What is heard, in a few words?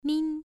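"min", tone 1, pronunciation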